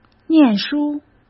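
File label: "念书", Mandarin Chinese; chinese-flashcards; hsk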